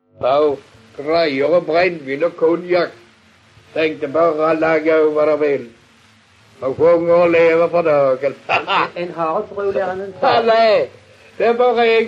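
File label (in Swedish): Allerum Skåne, dialekter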